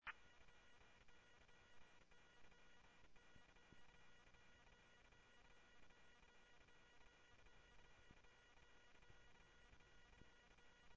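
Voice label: police department, scanner audio trunk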